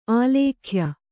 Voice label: "ಆಲೇಖ್ಯ", Kannada

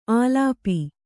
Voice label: "ಆಲಾಪಿ", Kannada

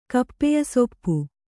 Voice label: "ಕಪ್ಪೆಯಸೊಪ್ಪು", Kannada

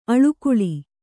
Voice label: "ಆಳ್ಗೊಳ್", Kannada

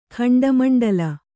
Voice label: "ಖಂಡಮಂಡಲ", kannada

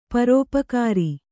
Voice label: "ಪರೋಪಕಾರಿ", Kannada

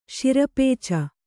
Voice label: "ಶಿರಪೇಚ", Kannada

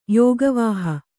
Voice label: "ಯೋಗವಾಹ", Kannada

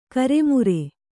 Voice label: "ಕರ್ಮೀರ", Kannada

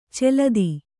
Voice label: "ಚಾಲ್ತಿ", Kannada

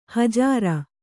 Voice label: "ಹಜಾರ", Kannada

